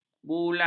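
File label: "bulang", Malay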